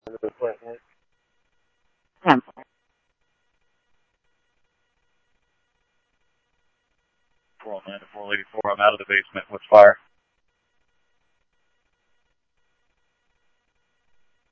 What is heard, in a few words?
Scottsdale Police, scanner audio; H-Scottsdale